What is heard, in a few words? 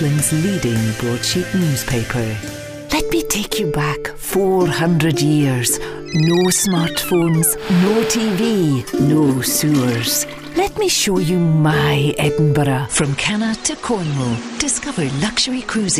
commercial, Warm Compassionate Scottish Voice